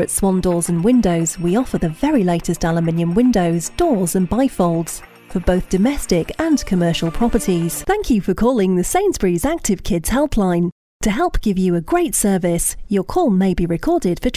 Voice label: on-hold